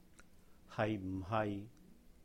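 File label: "係唔係", Cantonese; is / is not?; haih-m4-haih?; hai6 m4 hai6?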